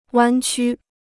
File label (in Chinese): "弯曲", Chinese